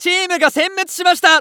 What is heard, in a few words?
Announce Wipe Team, JPN; Eternal Return